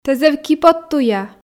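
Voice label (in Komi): "тэ зэв киподтуя", Komi, female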